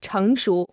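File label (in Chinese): "成熟" Chinese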